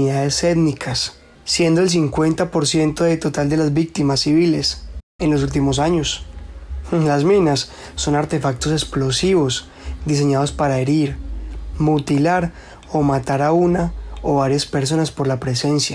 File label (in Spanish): Adolescente; casting trineo tv